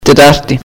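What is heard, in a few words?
"Wednesday", Greek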